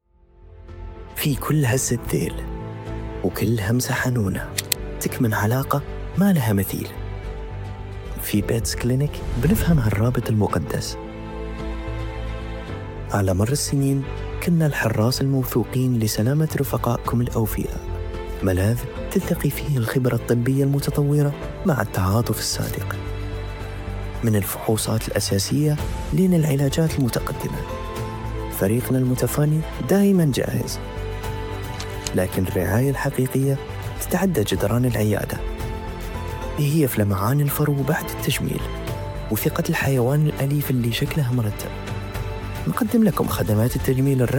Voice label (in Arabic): تعليق صوتي; documentary ads voiceover